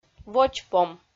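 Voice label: "водзпом", Komi, female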